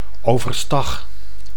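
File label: "overstag", Dutch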